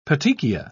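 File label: "petechia", British English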